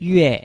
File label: "yue4", Chinese